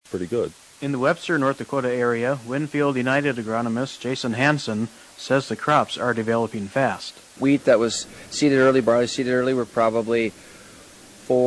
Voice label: air check; WinField United